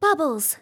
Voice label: bubbles, RPG Voice; RPG Voice Starter Pack

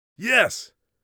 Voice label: yes, Warrior Voice; Warrior Voice Pack

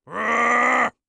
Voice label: angry, orc voice; Orc Voice Pack

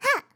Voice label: attack, RPG Voice; RPG Voice Starter Pack

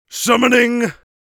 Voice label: summoning, mage voice; Mage Voice Pack

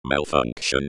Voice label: "Malfunction", Voices

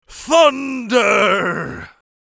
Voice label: thunder, mage voice; Mage Voice Pack

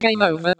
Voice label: "Game Over", Voices

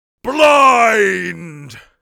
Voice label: blind, mage voice; Mage Voice Pack

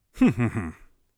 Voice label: amused, mage voice; Mage Voice Pack

